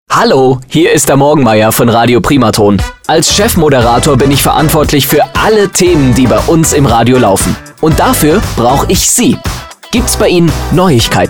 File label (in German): Voicedemo; Morgenmeier